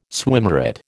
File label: "swimmeret", American